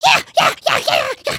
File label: volunteer, emotes